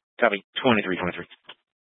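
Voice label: Police Dispatch, scanner audio recording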